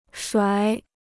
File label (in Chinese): "甩", Chinese